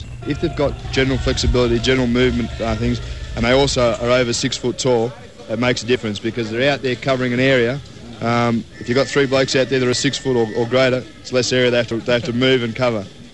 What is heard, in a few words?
Australian male adult, dialect